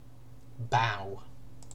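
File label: "bow", British English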